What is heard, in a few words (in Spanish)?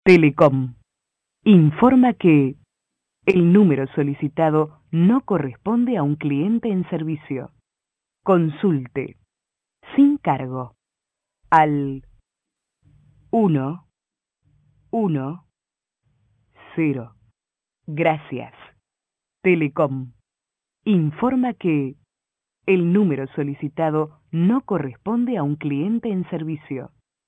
mensajes telefonicos